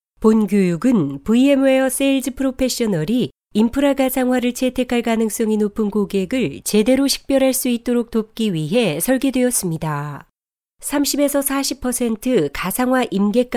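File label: korean female character; voice demos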